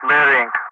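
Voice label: "bearing", English; Ka-50; Wingman Messages; DCS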